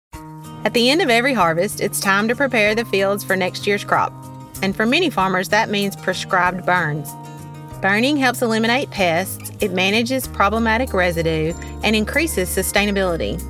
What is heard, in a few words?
Radio Spot